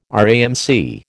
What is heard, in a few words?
"RAMC", American